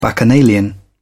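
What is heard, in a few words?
"bacchanalian", British English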